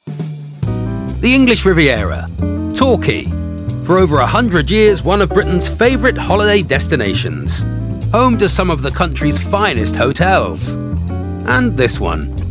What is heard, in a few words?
Narration